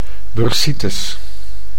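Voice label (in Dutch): "bursitis", Dutch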